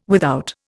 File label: "without", Indian